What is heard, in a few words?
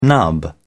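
"nub", British English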